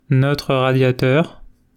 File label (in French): notre radiateur, French